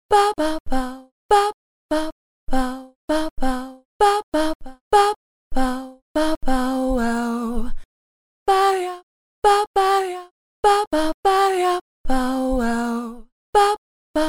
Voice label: soft